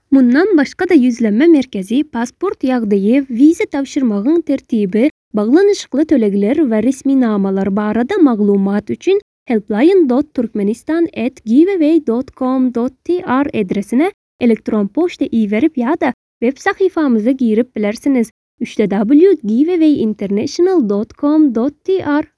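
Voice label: Turkmen Female Voice Over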